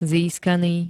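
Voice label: "získaný", Slovak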